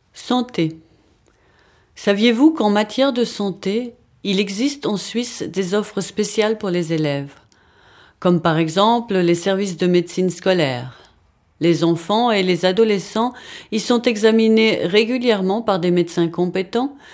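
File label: French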